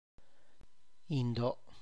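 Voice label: Tlacochahuaya Zapotec; mole sauce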